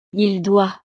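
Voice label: "il doit", French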